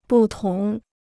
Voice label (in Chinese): "不同", Chinese